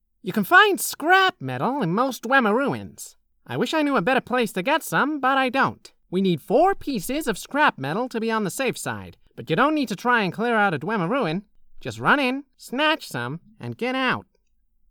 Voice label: bosmer, wood elf voice auditions; skywind; tes renewal